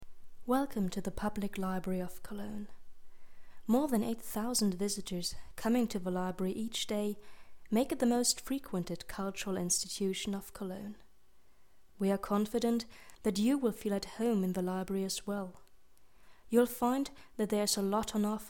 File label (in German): englisch laut